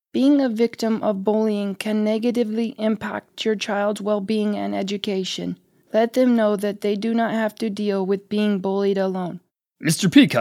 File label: VO Character Reel